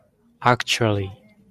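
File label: "actually", Japanese, male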